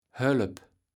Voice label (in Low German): "höllep", kaevels platt